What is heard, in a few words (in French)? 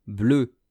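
"bleue", French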